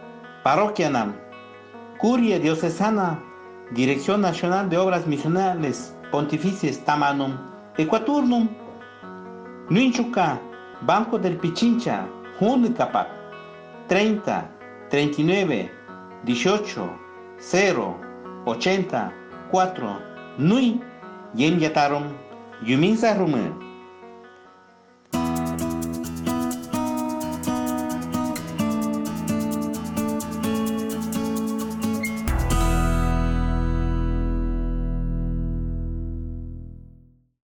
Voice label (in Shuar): Domund shuar audio; MDomund 2019